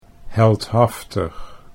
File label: "heldhaftig", Dutch